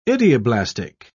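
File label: "idioblastic", English, British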